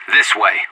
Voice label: radio follow me, player vo anarchist; Counter-Strike Global Offensive

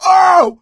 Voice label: incapacitated injury, voice; Left 4 Dead 2; coach

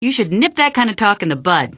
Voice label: answer, female; Garry's Mod; hl2

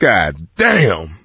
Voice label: reaction negative, survivor voice; Left 4 Dead 2; coach

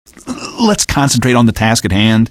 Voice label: answer, male; Garry's Mod; hl2

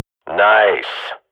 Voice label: radio bot cheer, swat; Global Offensive; player vo

